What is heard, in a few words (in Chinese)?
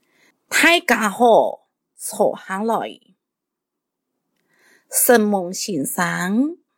客語 課室生活用語 四縣腔